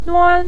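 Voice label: "nuan" neutral tone, Mandarin Chinese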